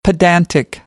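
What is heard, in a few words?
"pedantic", American English; AmericanBritish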